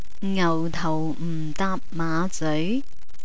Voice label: "ngautaumdaapmaazeoi", Cantonese

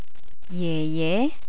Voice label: "jeje", Cantonese; address

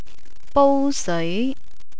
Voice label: "bouseoi", Cantonese; cooking

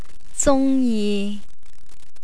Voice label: "zungji", Cantonese; feeling

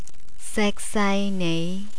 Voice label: "seksaainei", Cantonese; feeling

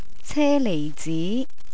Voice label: "celeizi", Cantonese

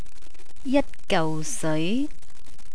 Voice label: "jatgauseoi", Cantonese

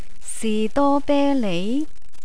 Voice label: "sidobelei", Cantonese

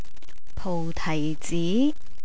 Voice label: "poutaizi", Cantonese

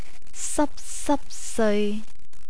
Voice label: "sapsapseoi", Cantonese

